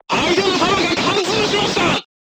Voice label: voice message player tank hit, crew tanks japanese